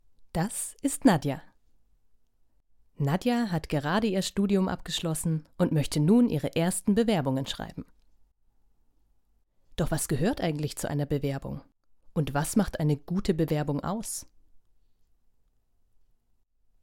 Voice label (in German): Erklärvideo